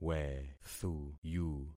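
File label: "wethuyu", concatenated syllables; Affixing experiment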